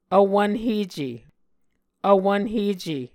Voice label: "vwvnhece", Muscogee

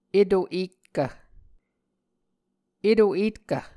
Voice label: "eto etkv", Muscogee

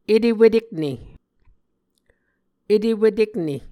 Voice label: "etewetekne", Muscogee

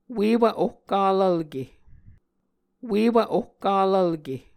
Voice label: "uewv ohkalvlke", Muscogee

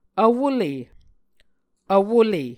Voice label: "vwole", Muscogee

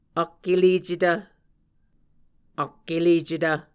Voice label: "ak elecetv", Muscogee

